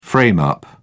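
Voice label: "frame up", English, British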